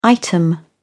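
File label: "item", British English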